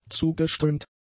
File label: "zugeströmt", German; adjectives